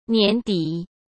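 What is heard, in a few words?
"年底", Mandarin Chinese; chinese-flashcards; hsk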